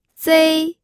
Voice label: "zei1", pinyin